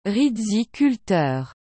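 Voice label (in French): "riziculteur", French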